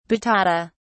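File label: "batata", British English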